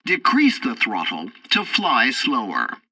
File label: tutorial fighter throttle, english; War Thunder